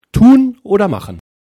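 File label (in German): "machen", German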